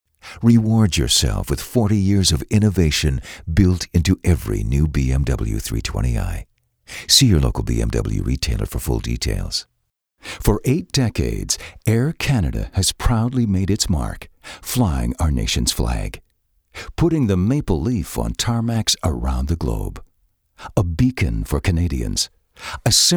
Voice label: commercials

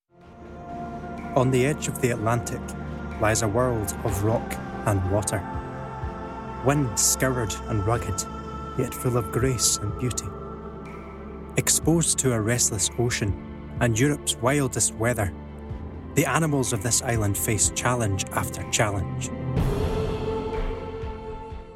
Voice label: Documentary

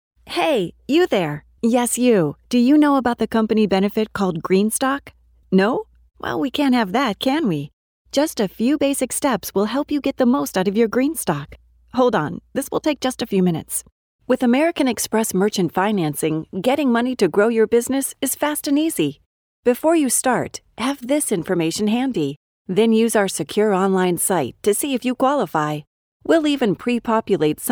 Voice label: eLEARNING

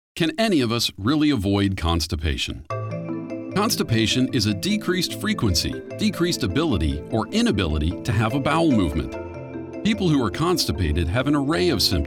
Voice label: E-Learning

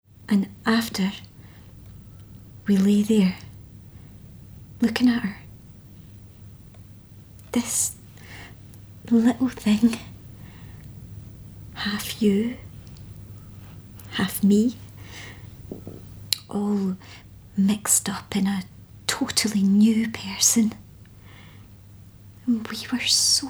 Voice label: Radio Play Excerpts

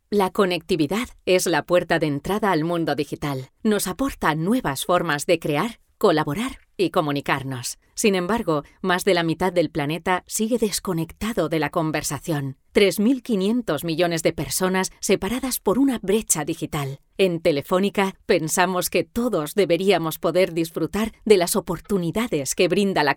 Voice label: spanish corporative; corporativedemo

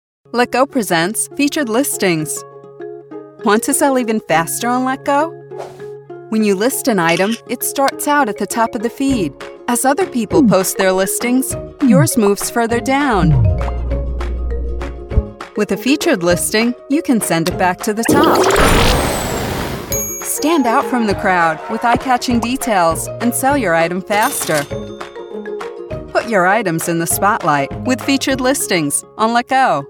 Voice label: Explainer

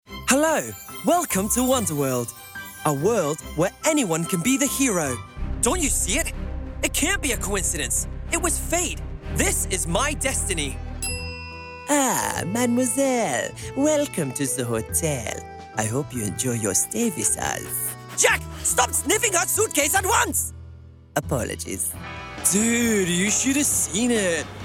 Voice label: Animation

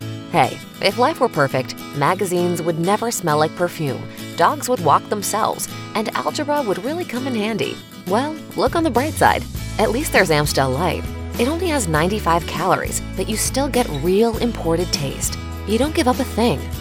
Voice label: Conversational

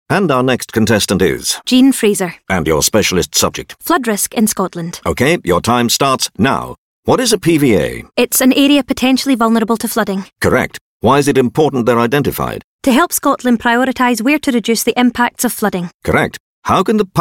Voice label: Game Show Host

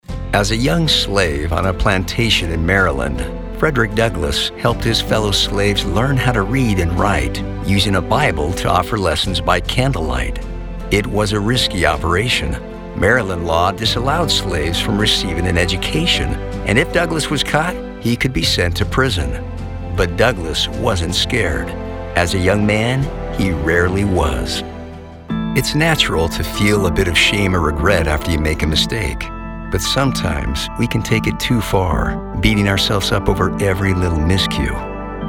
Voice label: E-Learning

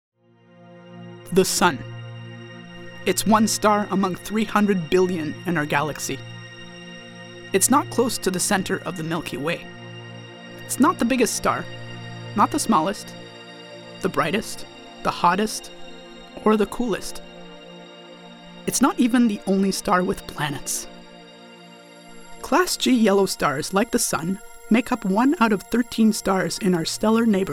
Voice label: E-Learning ENG

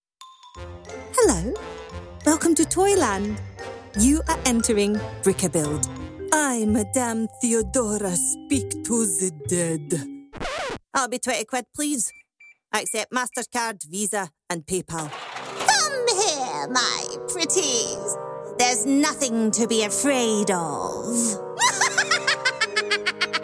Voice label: Animation and Character

